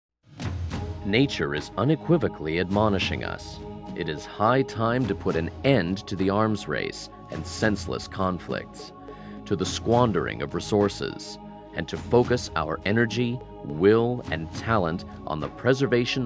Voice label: Narrations Short, Professional Male Voice Over